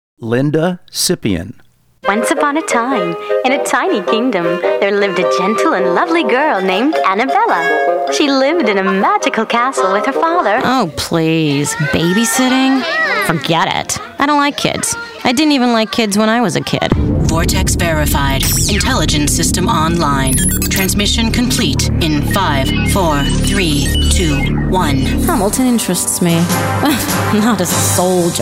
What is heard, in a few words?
animation, VO DEMOS - Women